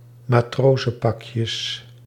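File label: "matrozenpakjes", Dutch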